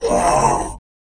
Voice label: hurt